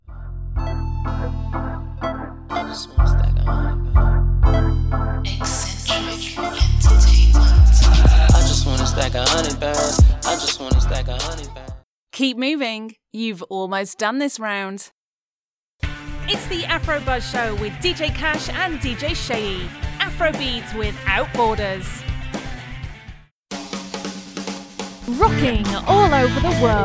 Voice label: Radio DJ Drop

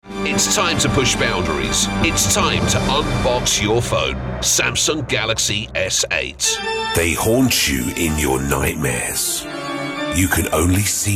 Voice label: RADIO COMMERCIALS

